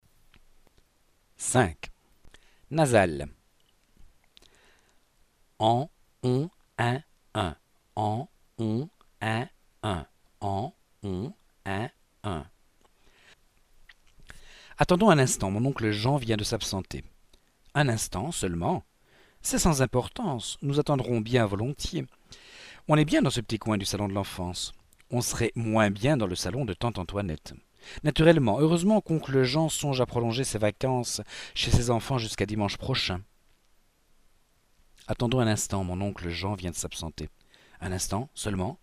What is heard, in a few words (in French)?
nasales